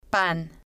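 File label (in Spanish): "pan", Spanish, female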